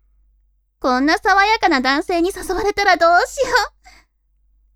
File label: woman